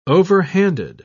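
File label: "overhanded", British English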